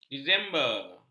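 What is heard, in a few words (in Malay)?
"disember", Malay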